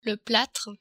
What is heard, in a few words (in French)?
"platre", french